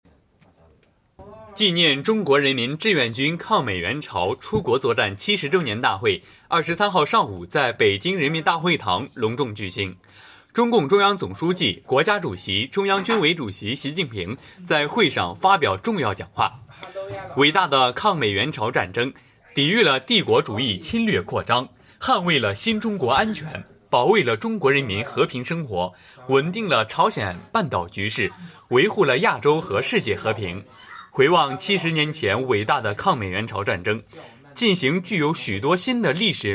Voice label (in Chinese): 抗美援朝新闻